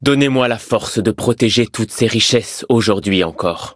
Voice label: levelup fr; cookie run